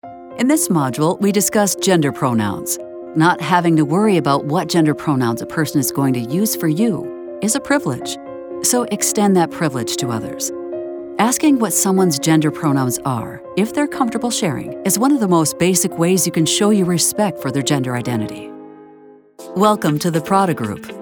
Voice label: eLearning